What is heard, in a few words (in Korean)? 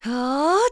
Vox Casting, kr; Laudia; kingsraid